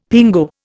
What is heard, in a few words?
"pingo", Indian; Dictionary